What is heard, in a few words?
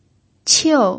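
"qiu", pinyin, tone 4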